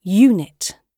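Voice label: "unit", British English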